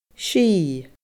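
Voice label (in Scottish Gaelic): "sith", Scottish Gaelic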